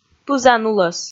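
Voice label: "пызан-улӧс", Komi, female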